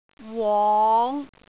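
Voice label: "wong5", Cantonese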